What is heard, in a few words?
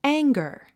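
"anger", English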